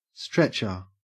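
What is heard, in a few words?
"stretcher", Australian English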